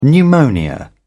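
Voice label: "pneumonia", British English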